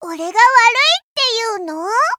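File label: victory, Japanese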